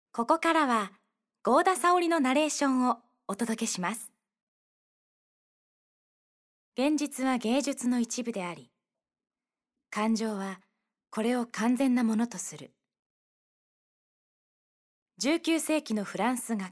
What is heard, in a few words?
Japanese narration